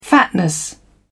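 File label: "fatness", British English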